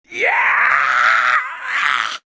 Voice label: "press", shouts; Best Service; Hyper Dance